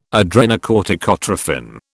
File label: "adrenocorticotrophin", British